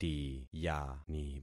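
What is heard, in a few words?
"tiyani", concatenated syllables; Affixing experiment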